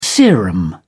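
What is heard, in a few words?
"serum", British English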